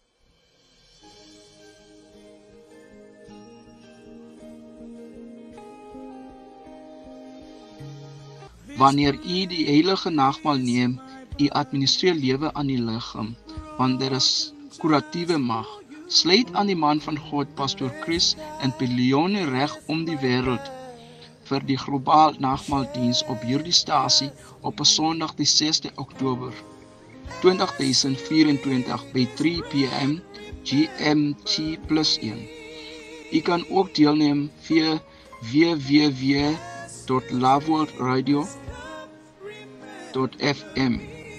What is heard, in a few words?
AFRIKANS JINGLES